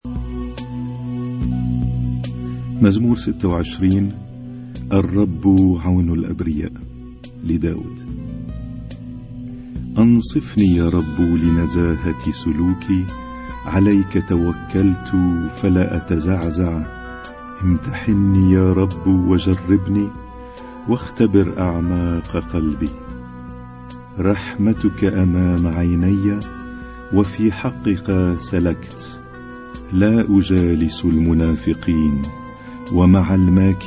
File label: Arabic Psalm Zaboor